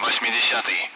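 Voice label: Speech, Russian, 8th; Allied Flight; DCS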